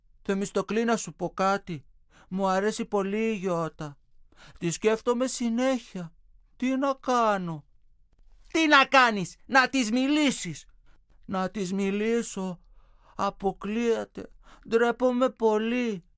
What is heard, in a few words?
dialog